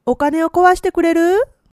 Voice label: "kowasu", woman; hogen

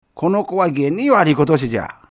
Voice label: man, warikotosi; hogen

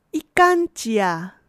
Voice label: "ikanchiya", woman; hogen

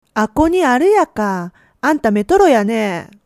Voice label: "metoro", woman; hogen

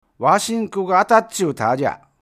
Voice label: man, atattyuu; hogen

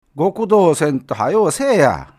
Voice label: man, gokudou; hogen

